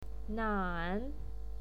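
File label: "nan" third tone, pinyin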